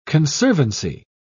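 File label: "conservancy", British English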